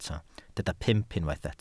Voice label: "pump", Welsh